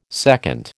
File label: "seconde", American